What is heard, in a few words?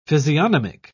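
"physiognomic", British English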